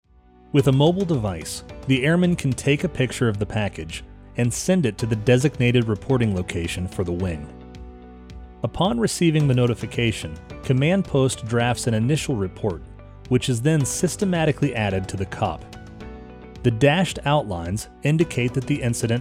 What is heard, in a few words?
vo demo